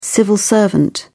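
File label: "civil servant", British English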